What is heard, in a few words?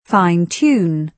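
"fine-tune", British English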